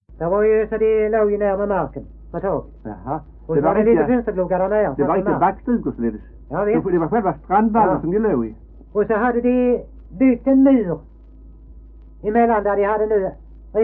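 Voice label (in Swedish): Degeberga Skåne, svenska sagor dialekter; Folkminnen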